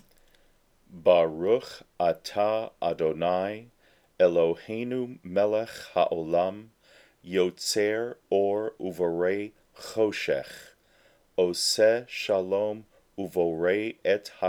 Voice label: Yotzeir Prayer Read Only; bnei mitzvah